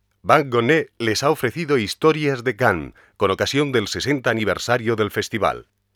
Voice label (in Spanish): publicidad